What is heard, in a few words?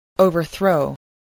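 "overthrow", spoken word, spelling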